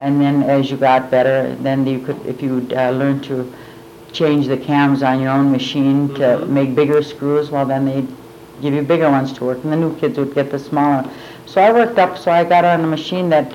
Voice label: Personal history with 55 year old female Chisholm Minnesota, american english dialect